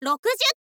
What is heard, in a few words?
"rokuzyu", info girl voice